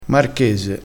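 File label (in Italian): "marchese", dialetto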